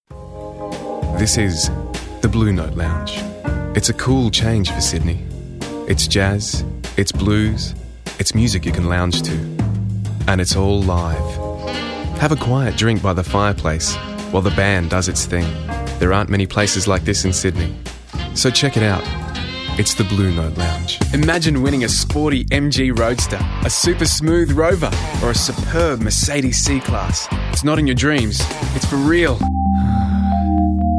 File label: Australian Accent